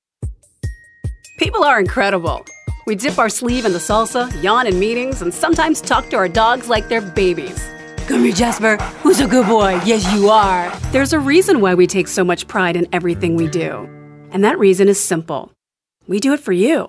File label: COMMERCIAL